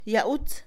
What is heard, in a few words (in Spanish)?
llenar, Mixe, Mujer; Cantidad; INALI